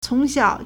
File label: "cong2 xiao3", Chinese